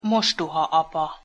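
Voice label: "mostohaapa", Hungarian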